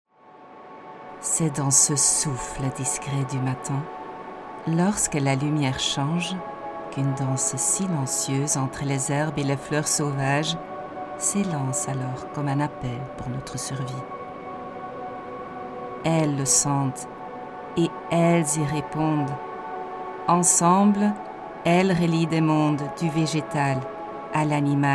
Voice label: Commercial Abeilles avec son FR, voice over voix off